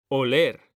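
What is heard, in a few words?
"oler", Spanish, male; mekanta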